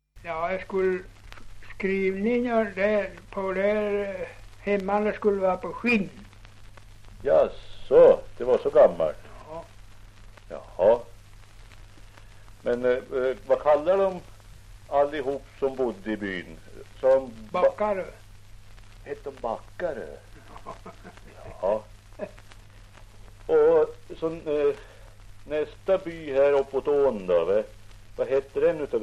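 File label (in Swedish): Ovansjö Gästrikland, dialekter; Folkminnen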